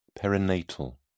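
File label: "perinatal", British English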